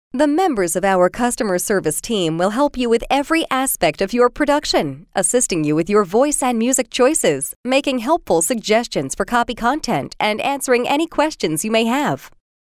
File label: female